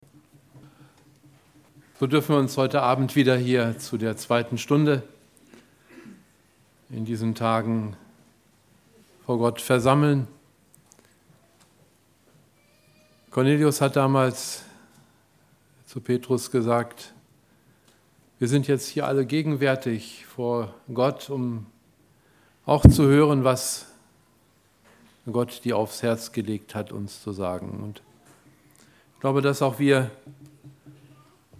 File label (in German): Ansprache; Lagerversammlung 2015; Das wahre Glueck